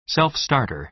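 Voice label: "self-starter", American English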